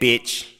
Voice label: "bitch", expletives

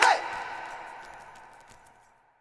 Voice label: "ay", voice

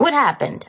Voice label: what happened, female; questions

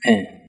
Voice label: "man", g-rated swears; Voice; expletives

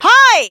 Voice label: "hi", voice; introductions

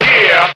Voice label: "yeah", group of men

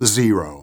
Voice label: "zero" announcer; numbers and letters

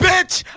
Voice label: "bitch", male; Voice

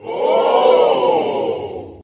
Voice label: "woah", group of men; ooh ahh

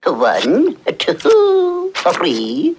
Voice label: "1 2 3" owl; numbers and letters

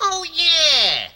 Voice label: "oh yeah" cartoon

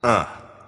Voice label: "uh", male

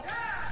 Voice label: "yeah" quiet; ooh ahh

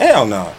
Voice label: "hell no" male, expletives; Voice

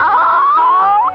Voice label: "oh" ow distort

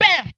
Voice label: "bam", male, Voice; ooh ahh

